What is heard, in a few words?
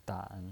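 "dan", Mandarin Chinese, third tone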